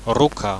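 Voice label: "ruka" Belarusian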